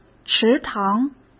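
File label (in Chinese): "池塘", Mandarin Chinese; chinese flashcards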